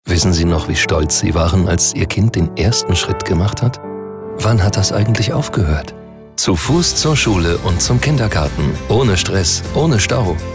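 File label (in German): Werbung Variabel; Fremdtake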